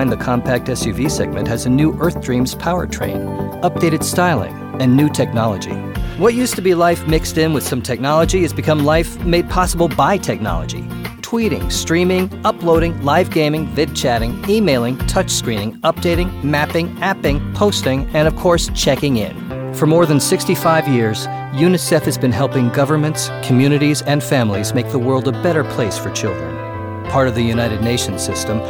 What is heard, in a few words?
Narration; CLinc Voices - MEN